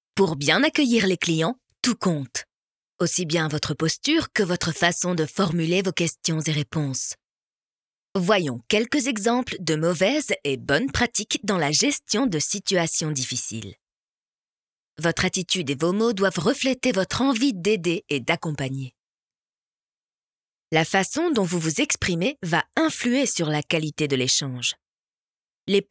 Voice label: 法语女, 清亮干音

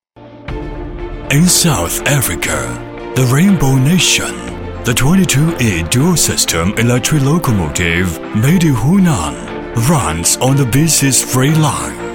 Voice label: 英男, 专题, 抑扬顿挫厚重感; 牵手非洲

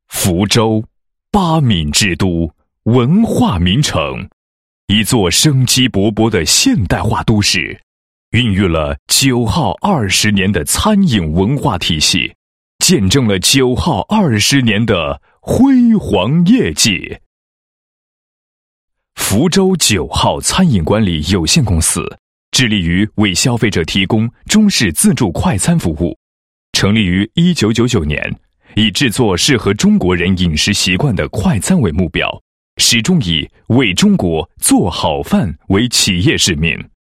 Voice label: 男 公司企业, 餐饮公司; 我们在路上; 久号

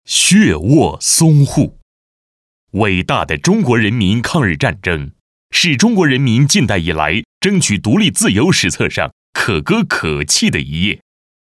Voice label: 男 历史 干声; 淞沪会战

